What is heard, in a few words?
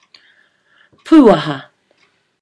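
"puaha", Māori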